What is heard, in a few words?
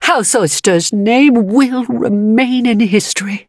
level up, en; cookie run